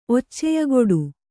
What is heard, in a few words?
"ಒಚ್ಚೆಯಗೊಡು", Kannada